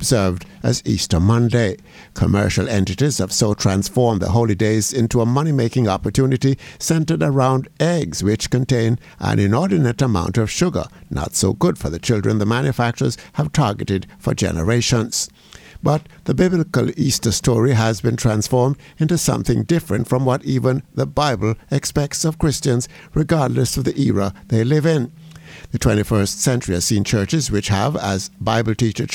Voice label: COMMENTARY